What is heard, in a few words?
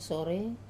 "sore" mouth, Wamesa